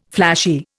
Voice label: "flashy", Indian